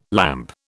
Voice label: "lamp", British